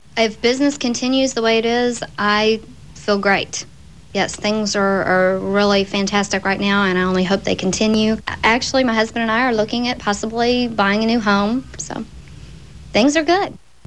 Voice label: Texas Dallas female, dialect

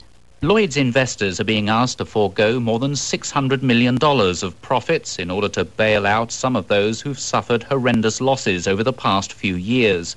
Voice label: British RP, dialect

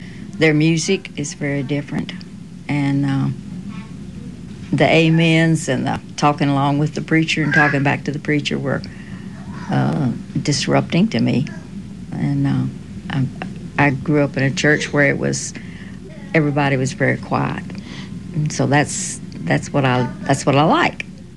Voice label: Texas female, dialect

